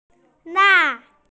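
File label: "la4", Mandarin Chinese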